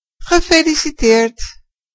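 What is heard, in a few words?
congratulation, Scottish Gaelic; gcompris-data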